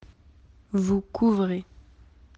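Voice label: "couvrir", French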